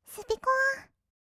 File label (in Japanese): スピコア, kawaii voice; ASMR; 音楽ジャンル